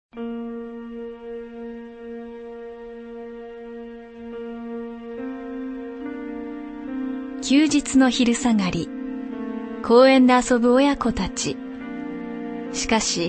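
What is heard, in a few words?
Japanese female voice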